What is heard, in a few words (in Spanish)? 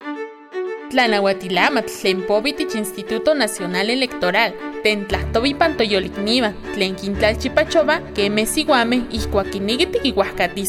NAHUATL